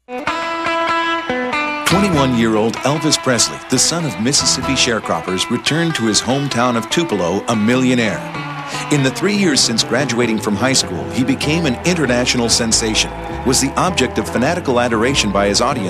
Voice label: narration, voiceover; Commercial